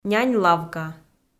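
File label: "нянь лавка" Komi-Zyrian, female; kpv audio dict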